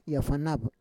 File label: "yafanav", Roon